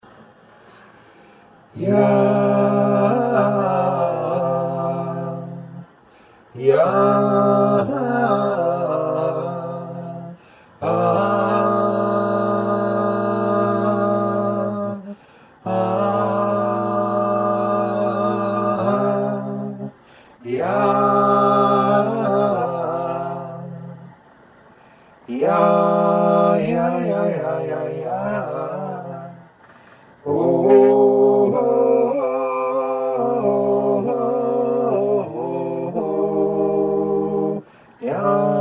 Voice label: voice BP, melodyne; micro